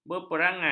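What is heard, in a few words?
"berperangai", Malay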